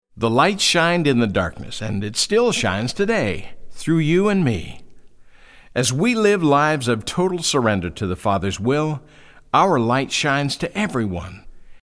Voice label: narration